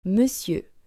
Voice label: "Monsieur", French

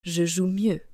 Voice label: "Je joue mieux", French

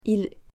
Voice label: "il", French, female; personal pronouns pronoms personnels

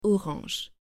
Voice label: "Orange", French

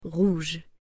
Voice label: "rouge" French

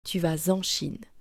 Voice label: "tu vas en Chine", French

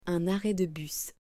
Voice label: "Un arret de bus", French

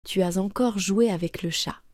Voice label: "Tu as encore joué avec le chat", French

